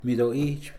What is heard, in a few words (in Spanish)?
"escuchar", Mixe, hombre; Percepción